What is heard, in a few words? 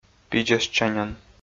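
"пидзӧсчаньӧн", Komi, male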